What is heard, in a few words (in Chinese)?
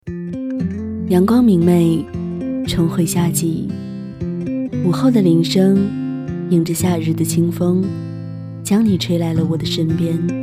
微电影旁白